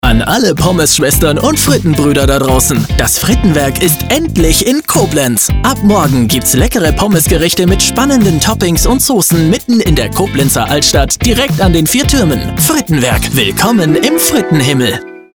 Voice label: Werbung Radio Cool; Frittenwerk

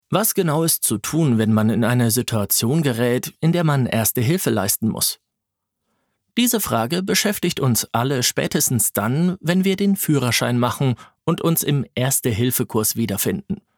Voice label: Hoerbuch Ratgeber; Scheisse ein Notfall

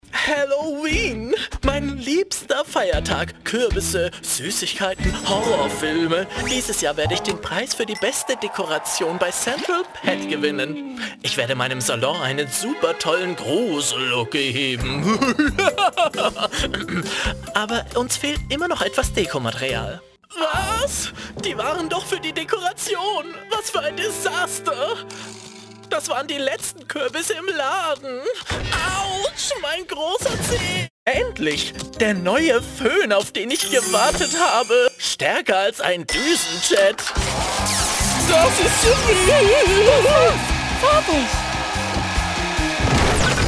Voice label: Synchron Schwuler Friseur; VIP Pets; Fabio in VIP Pets Reihe

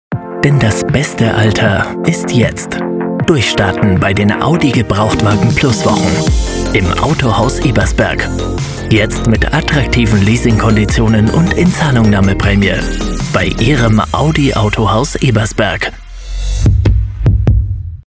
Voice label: Werbung Radio; Audi

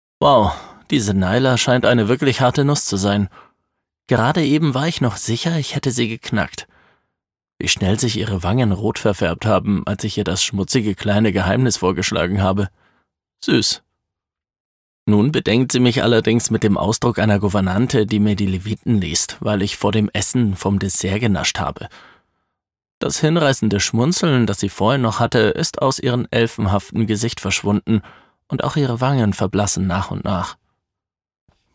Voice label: Hoerbuch Roman; Sehnsuchtsstrahlen

